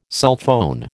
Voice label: "sulphone", American

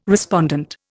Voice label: "respondent", Indian

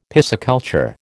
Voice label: "pisciculture", American

